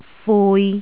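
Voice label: "fui3", Jyutping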